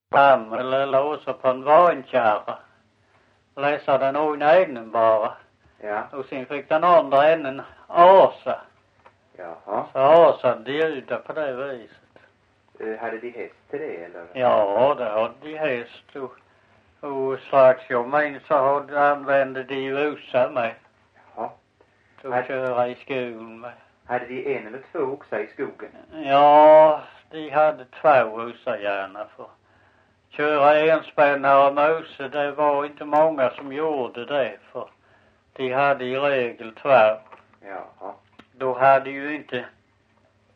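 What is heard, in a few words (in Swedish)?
Visseltofta Skåne, svenska sagor dialekter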